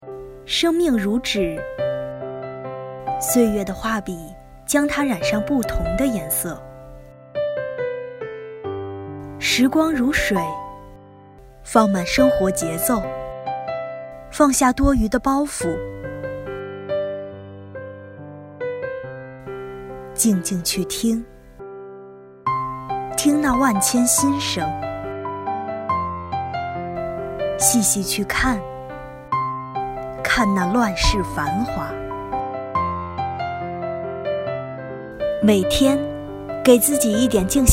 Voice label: 燕窝广告配音

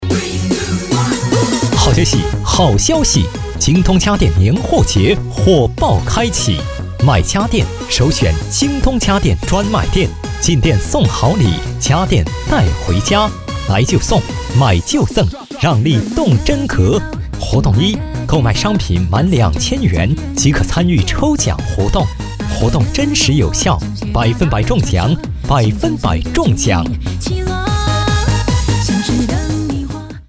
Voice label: 促销京东家电

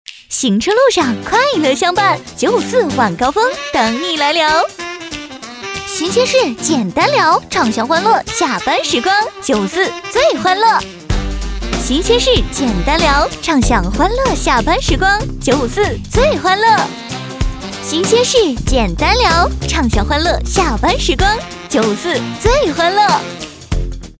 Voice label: 广告活泼俏皮

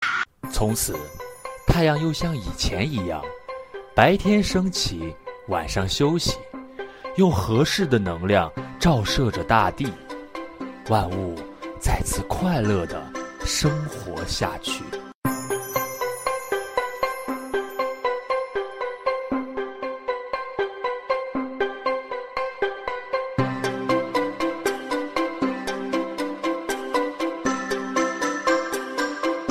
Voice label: 儿童剧配音

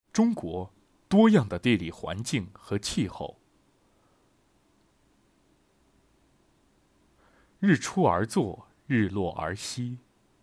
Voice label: 纪录片

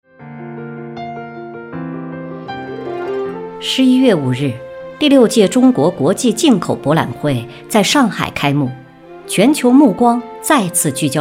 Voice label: 电台 新闻

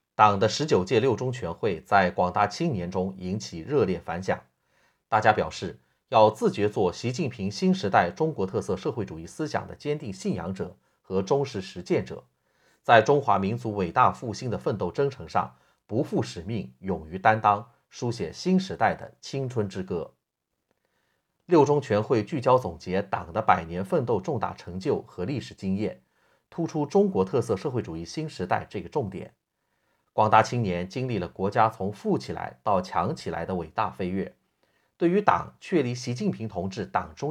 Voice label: 新闻 播报

